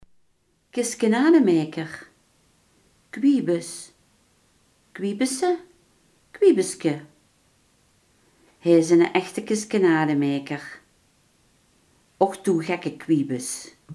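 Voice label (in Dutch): grapjas, Limburgse gesproken woordenboeken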